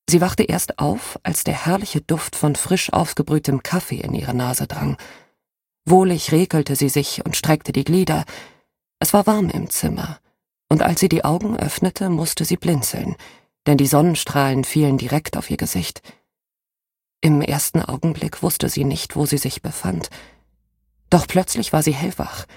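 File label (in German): Krimi Hörbuch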